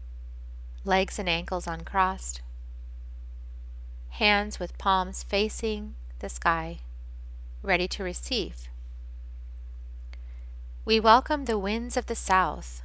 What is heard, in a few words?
Child Healing River Meditation